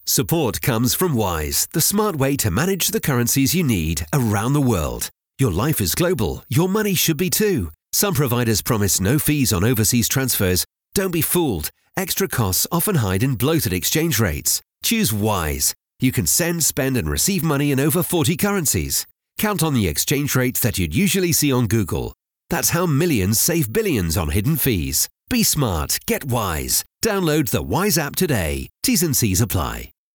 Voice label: english british podcasts